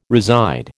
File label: "reside", American